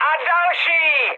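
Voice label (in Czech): Czech player killed enemy, mood high; speech; War Thunder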